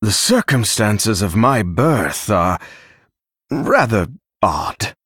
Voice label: Male Dragon Flavor